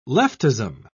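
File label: "leftism", British English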